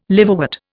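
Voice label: "liverwort", Indian English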